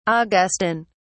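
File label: "augustan", British English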